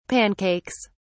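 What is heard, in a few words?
"pancakes", British English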